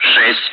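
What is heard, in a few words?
Speech, Russian, air traffic control; Digits; DCS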